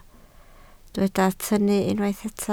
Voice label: "tynnu", Welsh